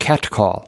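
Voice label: "catcall", US English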